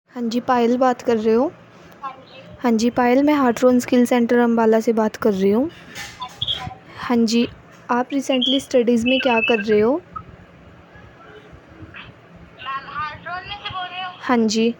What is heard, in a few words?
call recording